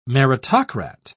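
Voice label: "meritocrat", British English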